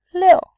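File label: "liu", Mandarin, tone 4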